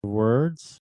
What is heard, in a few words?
reverse speech words